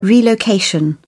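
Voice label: "relocation", British English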